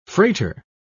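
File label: "frater", English, British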